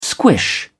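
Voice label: "squish", British English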